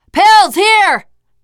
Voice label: spot pills, teengirl, voice; player; survivor